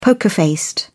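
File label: "poker faced", British English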